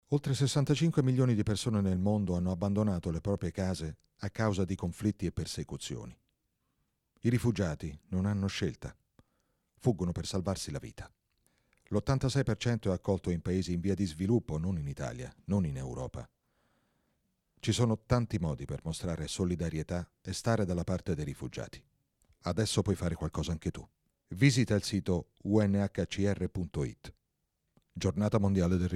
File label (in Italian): Spot radio